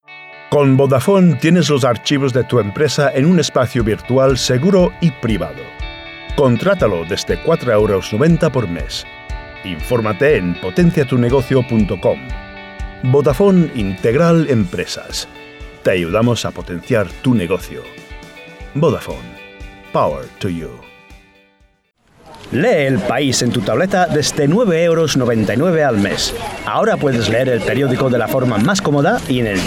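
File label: Spanish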